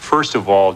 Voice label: first of all announcer